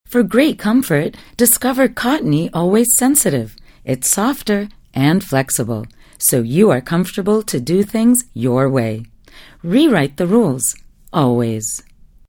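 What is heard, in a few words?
corporate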